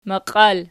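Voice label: "maqial" nominative, Archi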